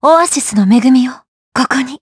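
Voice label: Vox Skill Japanese; Laudia; kingsraid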